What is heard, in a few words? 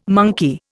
"monkey", Indian